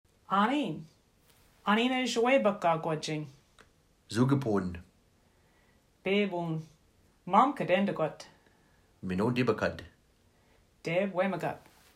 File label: Verb Type 1 What Happens Conversation, Ojibwe